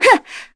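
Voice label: Vox, Korean; Cassandra; kingsraid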